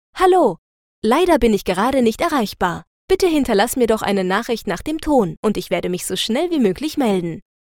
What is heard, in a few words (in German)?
ich ansage, anrufbeantworter